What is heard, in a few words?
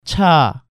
"Cha", Korean